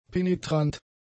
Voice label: "penetrant", German; adjectives

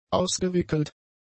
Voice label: "ausgewickelt", German; adjectives

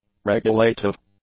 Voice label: "regulative", English; adjectives

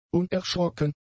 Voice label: "unerschrocken", German; adjectives

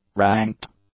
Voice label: "ranked", English; adjectives

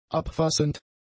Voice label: "abfassend", German; adjectives

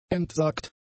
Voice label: "entsagt", German; adjectives

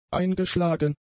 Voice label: "eingeschlagen", German; adjectives

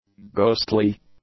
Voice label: "ghostly", english; adjectives

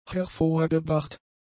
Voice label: "hervorgebracht", German; adjectives

